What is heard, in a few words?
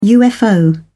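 "ufo", British English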